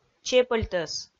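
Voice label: "чепӧльтӧс", Komi, female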